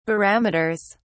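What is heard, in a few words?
"barometers", British English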